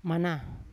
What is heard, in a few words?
"mana", Yawa; unat